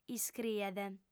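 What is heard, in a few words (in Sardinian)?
"iscriet", Sardinian, female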